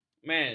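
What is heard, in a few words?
"mel", Malay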